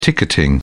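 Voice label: "ticketing", British English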